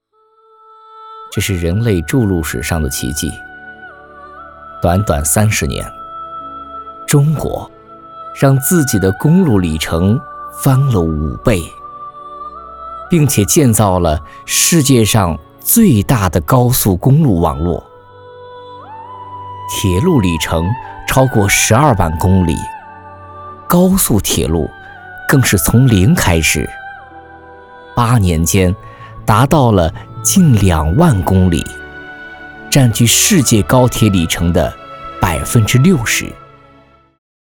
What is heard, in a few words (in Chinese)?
纪录片大气叙述解说